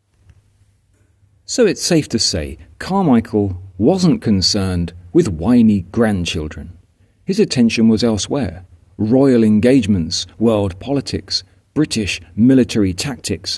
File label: voiceover